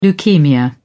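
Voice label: "leukaemia", British English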